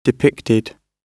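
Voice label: "depicted", British English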